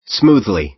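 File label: "smoothly", American English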